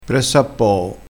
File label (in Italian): "pressappoo", crespinese